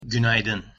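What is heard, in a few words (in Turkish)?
"günaydın", Turkish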